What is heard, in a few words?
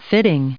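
"fitting", US English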